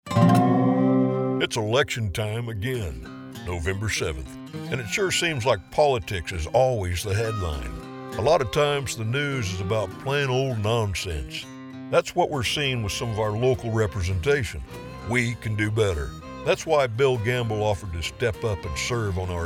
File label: Radio Ad